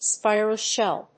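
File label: "spiral shell", English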